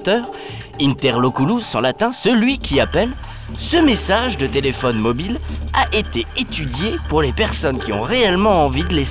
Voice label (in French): repondeur le plus long de la planete